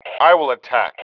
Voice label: I attack, male